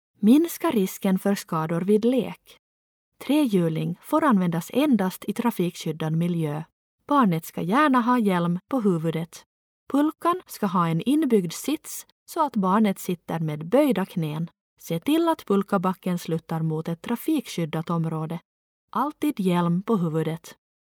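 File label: Swedish Sweden female narrative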